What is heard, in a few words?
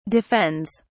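"defends", British English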